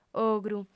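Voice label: "ogru" Sardinian, female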